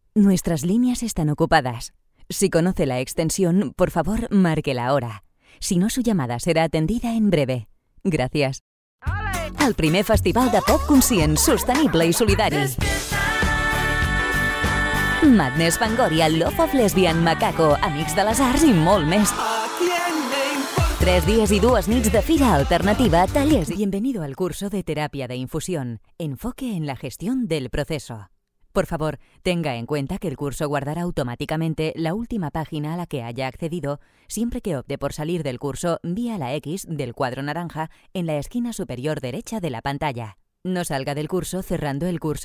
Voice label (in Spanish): Locutora espanola